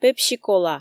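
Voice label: "пепси-кола", Komi, female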